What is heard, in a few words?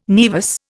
"naevus", Indian